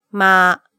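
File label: "ma", Japanese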